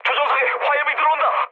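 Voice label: Korean on fire mood low; speech; War Thunder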